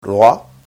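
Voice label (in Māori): "roa", Maori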